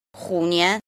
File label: "jin", Chinese